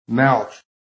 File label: "MOUTH", american; PHONETIC